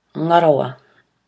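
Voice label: "ngarawa", Māori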